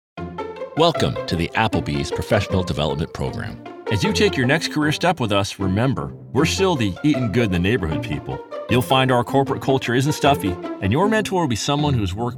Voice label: E LEARN